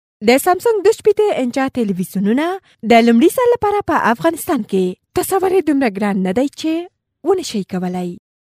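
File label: pashto female voice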